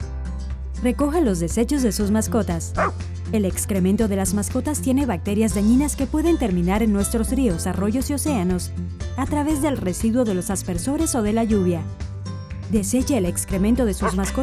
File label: Spanish